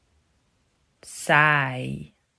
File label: "Saai", Dutch